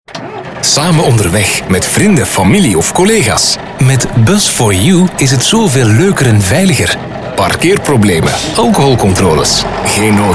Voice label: commercial; bus 4 you